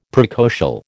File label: "precocial", American